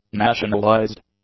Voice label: "nationalized", english; adjectives